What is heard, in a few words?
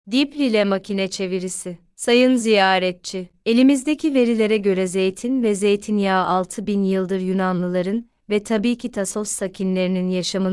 turkish; eisagogi